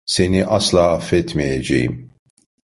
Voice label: "asla", Turkish; mozilla voice